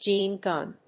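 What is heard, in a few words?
"gene gun", English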